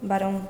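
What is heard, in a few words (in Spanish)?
"varon", Spanish, Andalusia, Sevilla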